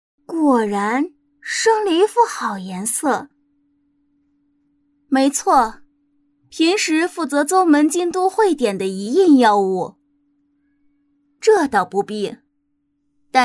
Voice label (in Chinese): 动画 游戏 角色 大师姐